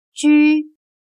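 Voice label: "居" Chinese, jū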